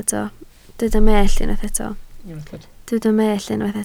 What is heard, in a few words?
"mellt", Welsh